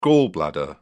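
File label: "gall bladder", British English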